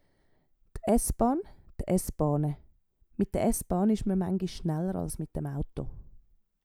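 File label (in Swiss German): "d S-Baan", Swiss German; Wortschatz Kapitel 8; Kapitel 8 Zusatzwortschatz 4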